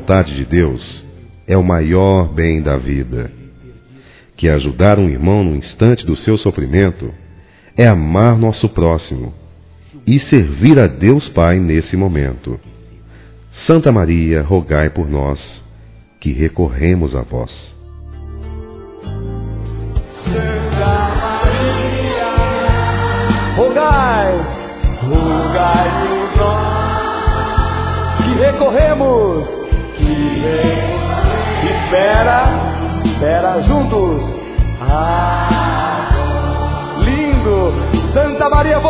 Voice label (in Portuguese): Oração terço masculino